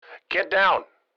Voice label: Military radio voice command; get down